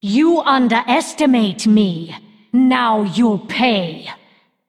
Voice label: Female Undead